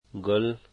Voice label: "flower", Dari

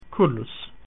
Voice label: "car", Latin

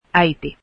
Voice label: finnish mother

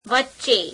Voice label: gujarati between; વચ્ચે [veche'che]

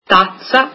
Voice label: cup, italian

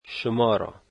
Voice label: "you", Dari

